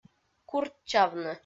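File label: "курччавны", Komi, female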